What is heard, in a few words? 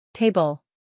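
"Table", inglés; Relaciona Palabras en Ingles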